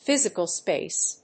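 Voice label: "physical space", English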